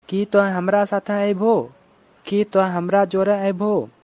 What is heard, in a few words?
"Ki toih hamra saathein aibho", Angika language; Will you come with me?